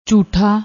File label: "jhootta", Punjabi